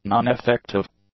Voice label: "noneffective", english; adjectives